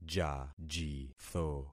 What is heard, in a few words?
"jajitho", concat syllables; Affixing experiment